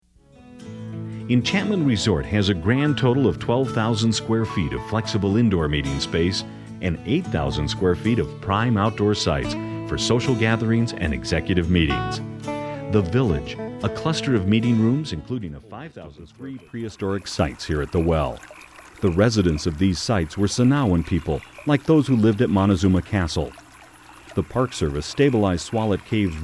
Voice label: narration